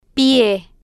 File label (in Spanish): "pie", Spanish